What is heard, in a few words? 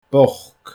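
"boc", Scottish Gaelic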